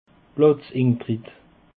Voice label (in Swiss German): "platzintritt", alsace